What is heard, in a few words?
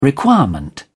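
"requirement", British English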